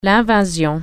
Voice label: invasion, french